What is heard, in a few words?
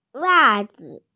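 "wa4zi5", Mandarin Chinese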